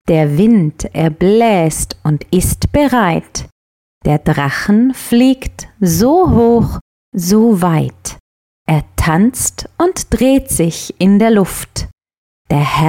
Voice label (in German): hoergedicht; sprachwerkstatt; mini spatzenpost; Schuelerzeitschriften